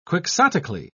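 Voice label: "quixotically", English, uk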